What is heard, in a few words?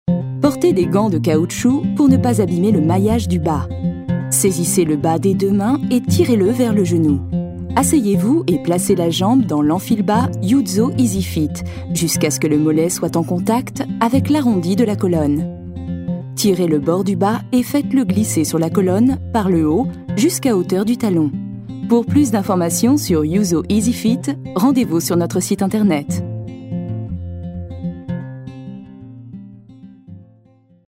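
French, Neutral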